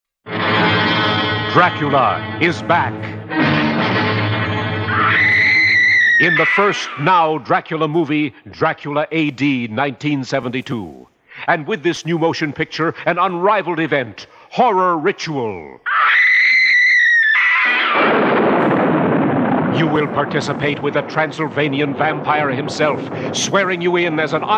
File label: Radio Spots; Dracula A.D. 1972; HorroRitual